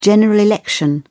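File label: "general election", British English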